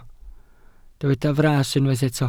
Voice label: "fras", Welsh